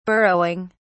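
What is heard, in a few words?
"burrowing", British English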